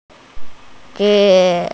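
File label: "nei4", Mandarin Chinese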